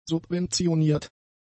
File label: "subventioniert", German; adjectives